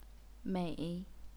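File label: "mei", third tone, chinese pinyin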